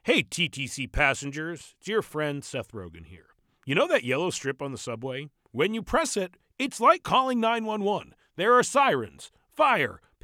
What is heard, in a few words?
Emergency, Public Service Announcements; Seth Rogen; TTC